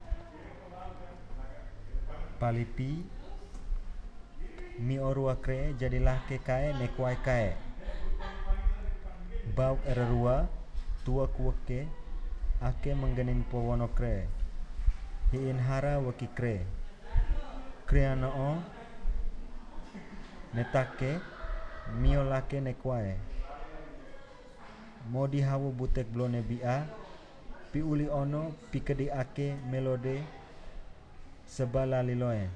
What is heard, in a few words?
Lamaholot